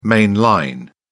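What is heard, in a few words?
"main line", British English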